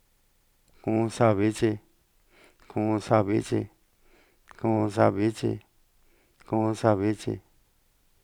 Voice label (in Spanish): palabras, mixtec; Yoloxochitl; Diccionarios elicitados; Cuanacaxtitlan